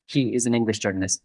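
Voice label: Voice Acting es M, Spanish, male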